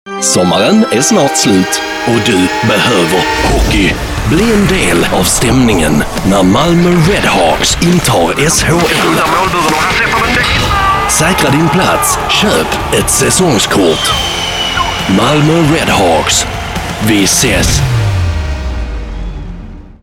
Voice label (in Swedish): radio reklam; MalmoRedhawks